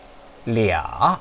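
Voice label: "lia", Mandarin Chinese, third tone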